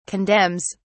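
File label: "condemns", British English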